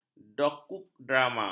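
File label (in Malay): "dokudrama", Malay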